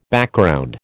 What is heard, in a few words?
"Background", American